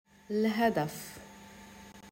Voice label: "l-hadaf" arabic pronunciation